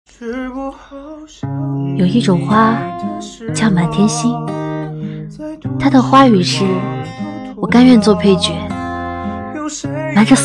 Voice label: 情感独白

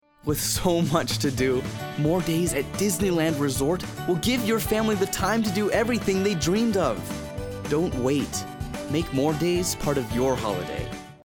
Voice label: 男 美式英文广告 乐园; 迪士尼乐园

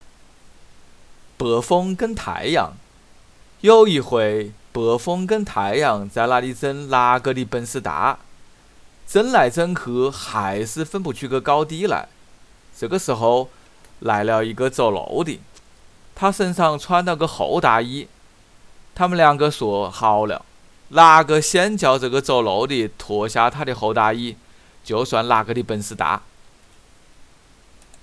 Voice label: 武汉话

